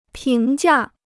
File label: "评价", Chinese